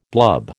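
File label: "blub", American English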